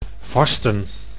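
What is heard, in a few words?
"vasten", Dutch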